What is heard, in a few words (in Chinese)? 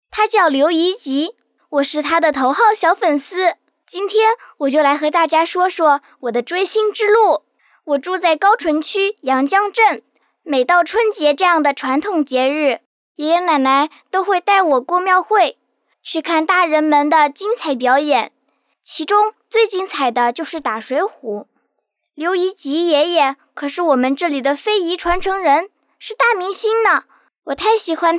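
女大音-女真童-古老非遗与活力青春的双向奔赴; 真童配音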